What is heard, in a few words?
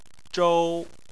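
"zhou" Chinese, first tone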